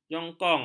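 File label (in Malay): "jongkong", Malay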